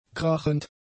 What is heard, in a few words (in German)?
"krachend", German; adjectives